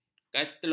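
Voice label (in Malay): "katlum", Malay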